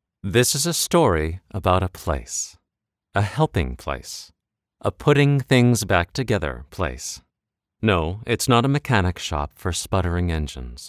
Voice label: sympathetic; Different Kind of ICU - sympathetic

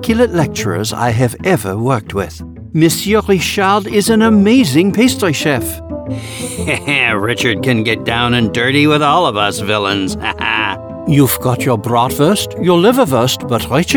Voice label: characters Vocal Actor Ad; Big Ear

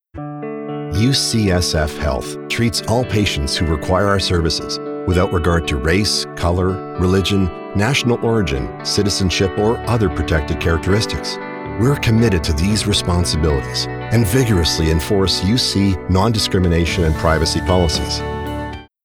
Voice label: Medical Narration; UCSF HEALTH

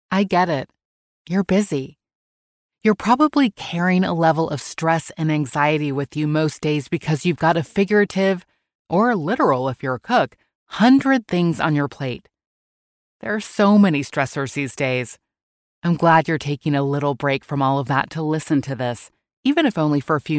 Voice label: Self Help Deep Breathing; NF, self-help, deep breathing

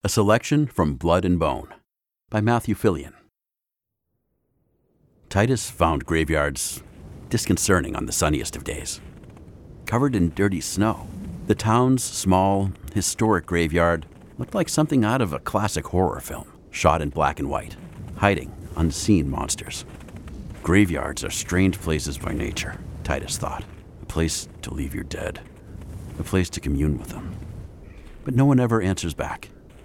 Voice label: YA character work; BLOOD AND BONE

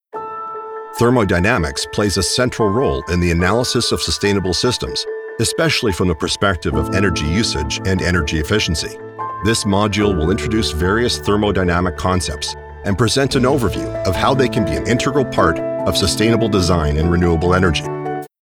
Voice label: eLearning; TECHNICAL

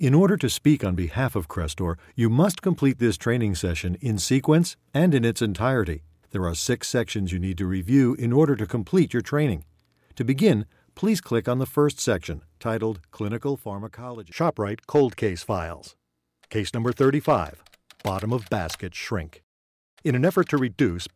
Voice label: E-Learning, English American

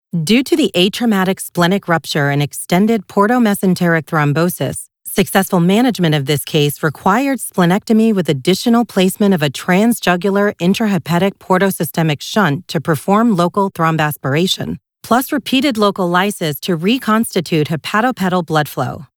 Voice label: Medical Narration; Thrombosis

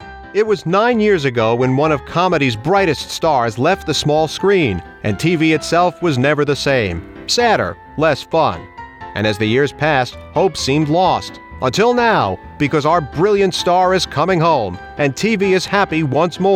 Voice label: Promo

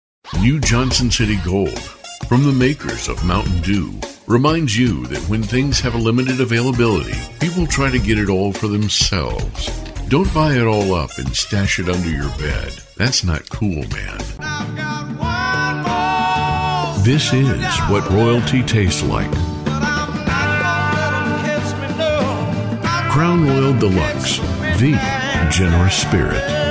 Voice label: Commercial

